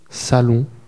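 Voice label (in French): "salon", French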